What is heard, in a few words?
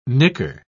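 "nicker", British English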